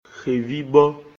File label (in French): "Xèvíbɔ́", Aile; Mina